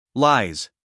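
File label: "lies", American English, male; everyone-can-use-english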